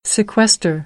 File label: "sequester", American English; ɚ - əɹ